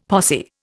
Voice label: "posse", Indian; Dictionary